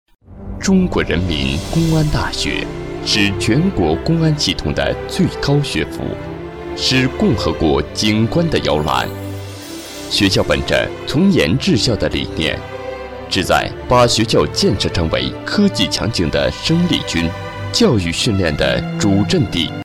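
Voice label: chinese mandarin male commercial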